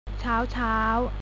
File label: "morning", Thai; เช้า ๆ